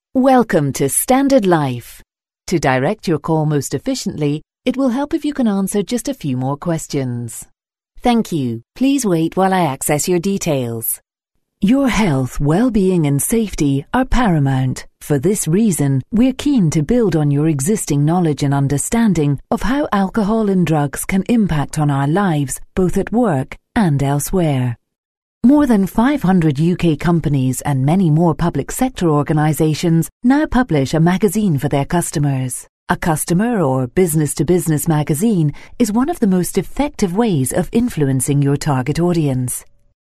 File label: female